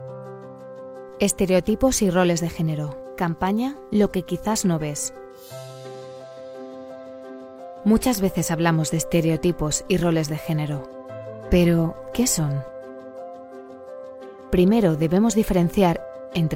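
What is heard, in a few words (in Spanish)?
e-learning, Spain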